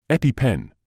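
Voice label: "EpiPen", British English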